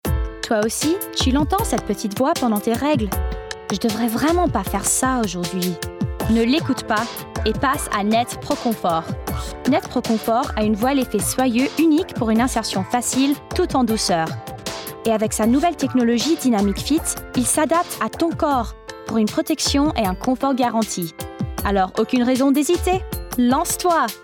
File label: French